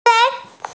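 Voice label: "yun2", Mandarin Chinese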